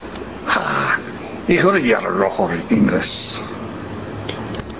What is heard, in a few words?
Tobian; Hah, ihura yararahor ingires; Aye, I know the way to England; Hah, nang yegorah beeto Inglish; The earliest-known examples of Tobian speech: Dialogues in the Language of Lord North's Is